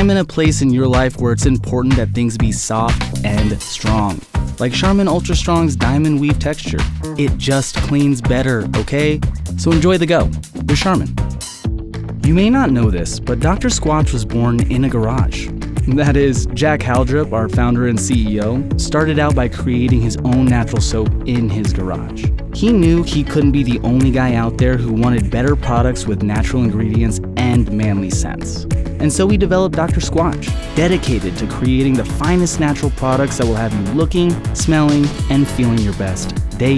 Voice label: Corporate, narration